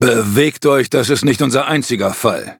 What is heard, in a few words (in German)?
Cookie battle, de; cookie run